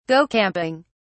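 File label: "go camping", British English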